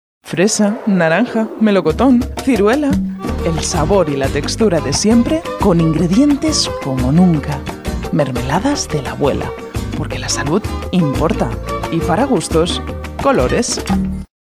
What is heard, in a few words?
Spanish Retail